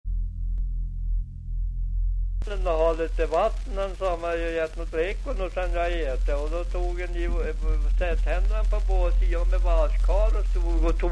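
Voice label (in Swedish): Aspås Jämtland, svenska sagor dialekter; Folkminnen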